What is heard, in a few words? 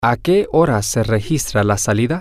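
when is the check out time, spanish, male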